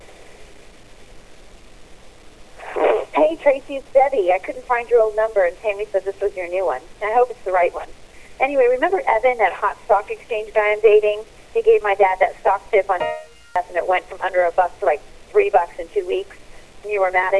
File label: voicemail